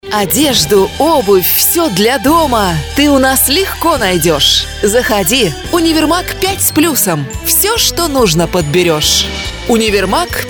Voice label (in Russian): реклама для Универмага диктор; Пять с Плюсом